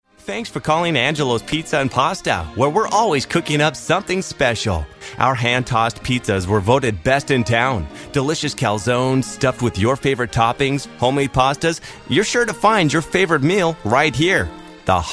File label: On Hold IVR